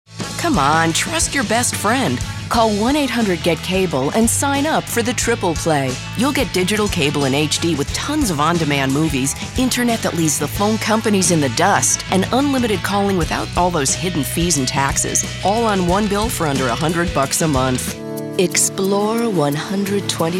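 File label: commercial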